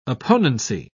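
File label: "opponency", British English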